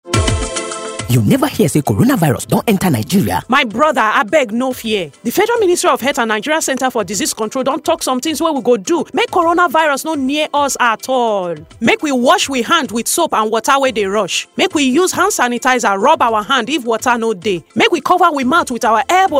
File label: Pidgin Audio Jingle; WhatsApp